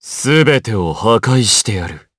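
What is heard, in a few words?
Vox Skill, Japanese; DarkKasel; kingsraid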